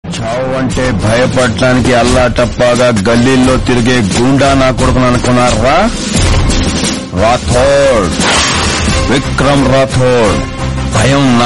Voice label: Dialogue Telugu Powerful Dialogue; Vikram Rathore; Vikramarkudu; Ravi Teja